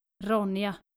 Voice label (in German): "ronja", skandinavische maedchennamen